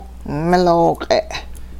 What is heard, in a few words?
animal's calf, hupa